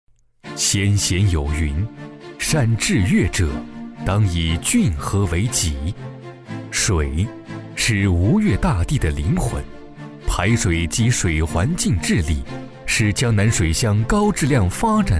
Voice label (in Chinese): 男 专题 沉稳大气